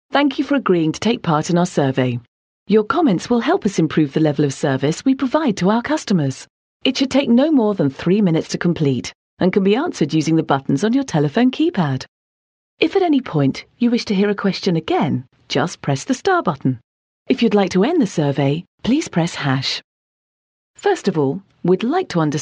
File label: British F, British English